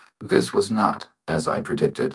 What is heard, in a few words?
upset; fertig classic games; cybro